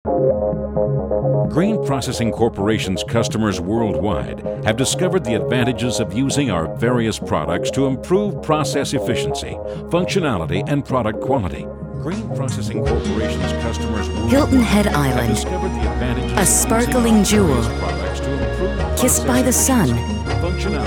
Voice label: narration; Narration Demo

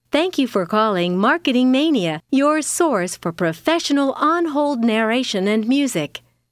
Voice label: on hold, female voice

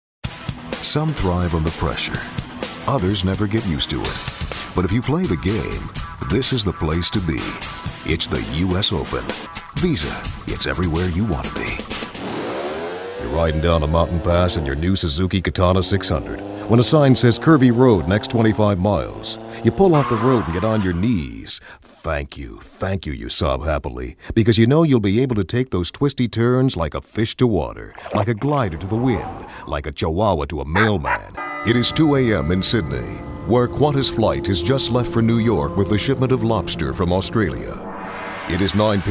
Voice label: comm, male voice talent

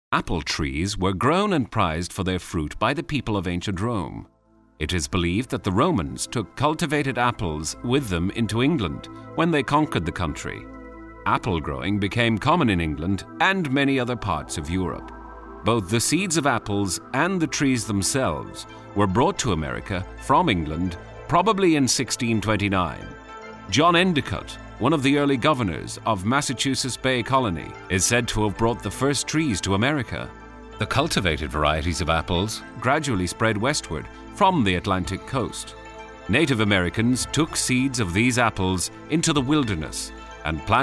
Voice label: irish

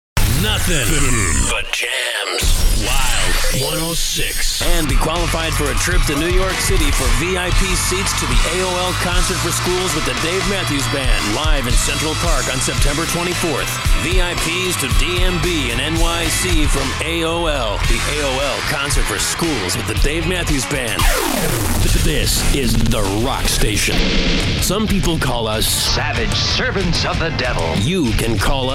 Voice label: imaging, male voice talent

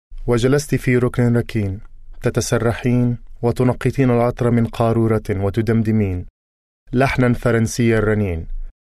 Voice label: Arabic

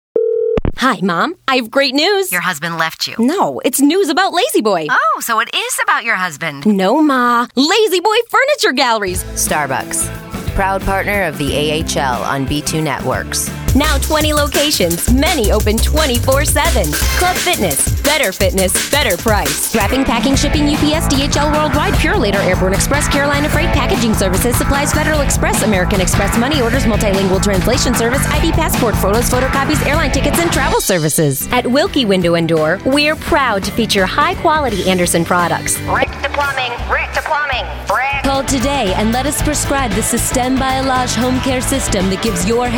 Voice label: female voice talent

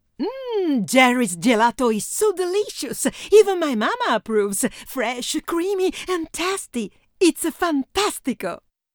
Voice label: Italian Accent; foreign languages

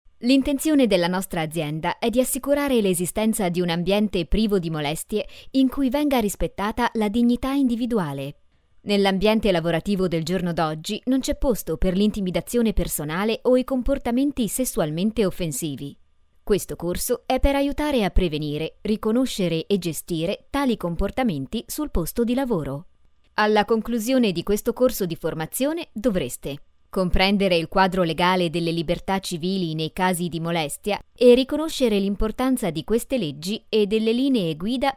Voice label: Italian Voice; foreign languages

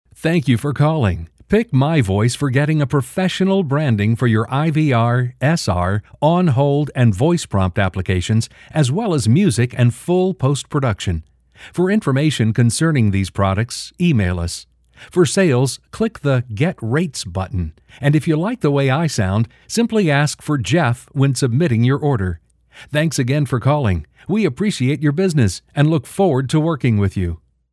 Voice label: Voice Sample, male voice talent

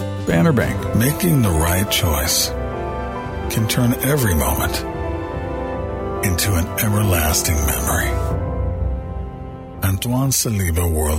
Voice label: Comm, male voice talent

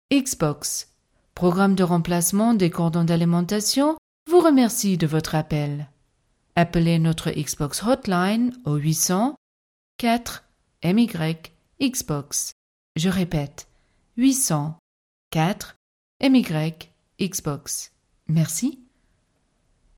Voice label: french

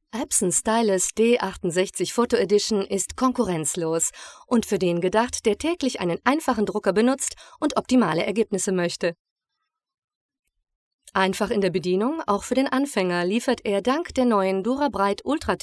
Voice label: german, German voice overs, Female

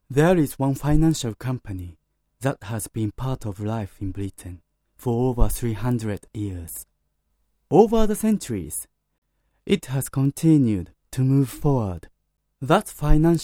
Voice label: japanese accent, foreign accent voiceovers; Male; Asian Accent

